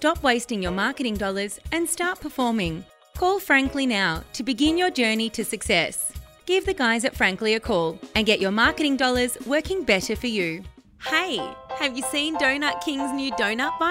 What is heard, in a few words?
Voiceover; English (Australian)